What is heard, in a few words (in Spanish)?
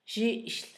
nuera, Zapoteco, Asunción Ocotlan; Humano; INALI